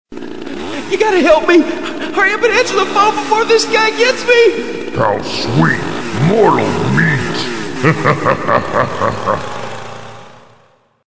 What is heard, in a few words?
horror movie call